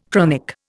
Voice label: "chronic", Indian

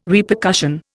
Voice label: "Repercussion", Indian

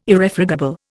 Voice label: "Irrefragable", Indian

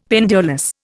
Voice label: "pendulous", Indian; Dictionary

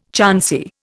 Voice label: "chancy", Indian

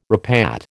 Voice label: "repat", American

